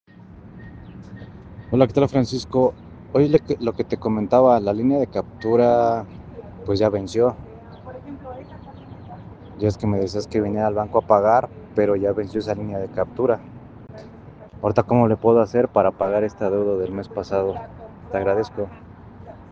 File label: whatsapp ptt